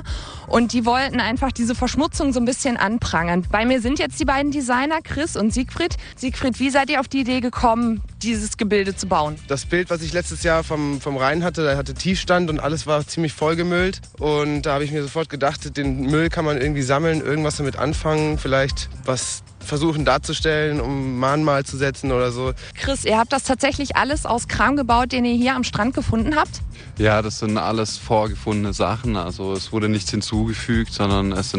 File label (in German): Radio bericht studenten muell